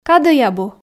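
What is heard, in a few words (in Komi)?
кадӧй абу, Komi, female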